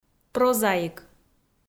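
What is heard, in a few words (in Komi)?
"прозаик" Komi, female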